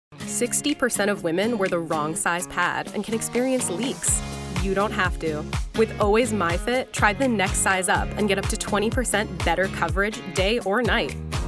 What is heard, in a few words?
VO Commercial